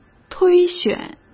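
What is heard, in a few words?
"推选", Mandarin Chinese; chinese-flashcards; hsk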